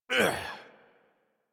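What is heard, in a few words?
horse mount adult, English; Warframe; Duviri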